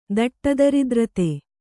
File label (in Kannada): "ದಟ್ಟದರಿದ್ರತೆ", Kannada